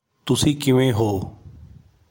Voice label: Punjabi How are you; Greetings

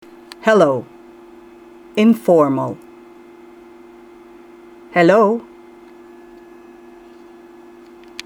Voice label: Tagalog Hello informal; Greetings

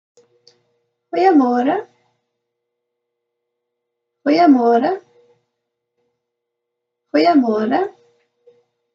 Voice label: Afrikaans Good morning; Greetings

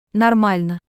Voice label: Russian as usual all good normal; Greetings

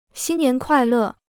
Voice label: Mandarin Happy New Year; Greetings

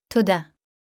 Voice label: Hebrew Thank you; Greetings

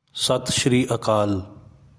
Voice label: Punjabi Good morning; Greetings

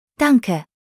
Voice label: German Thank you; Greetings

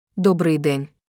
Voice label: Ukrainian Good afternoon; Greetings

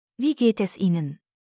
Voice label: German How are you; Greetings

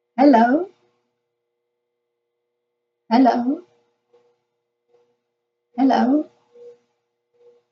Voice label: Afrikaans Hello formal; Greetings

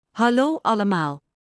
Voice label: Dutch Greetings everyone

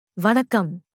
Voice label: Tamil Hello formal; Greetings

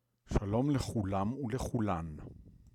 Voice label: Hebrew Greetings everyone mixed audience

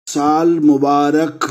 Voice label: Gujarati Happy New Year; Greetings

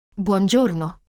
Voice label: Italian Good morning; Greetings

